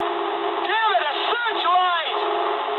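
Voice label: English searchlite mood high; War Thunder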